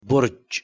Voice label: "burj", Arabic